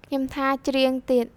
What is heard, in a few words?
careful crieng, khmer trills